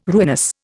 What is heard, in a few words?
"ruinous", Indian